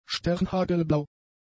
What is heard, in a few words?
"sternhagelblau", German; adjectives